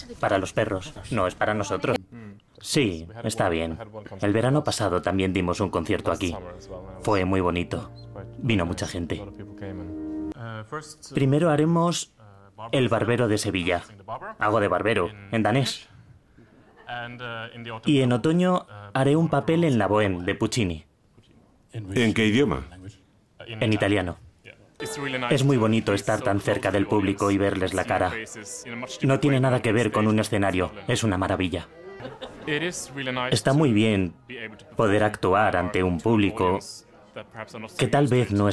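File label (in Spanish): doblaje España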